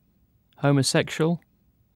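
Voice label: "homosexual", British English; pronunciations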